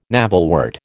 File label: "navelwort", American